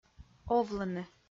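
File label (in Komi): "овлыны", Komi, female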